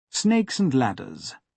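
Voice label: "snakes and ladders", British English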